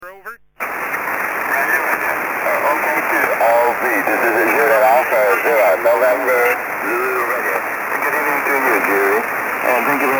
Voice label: 80m SSB; Jak posloucháte DXy v pásmu 80m?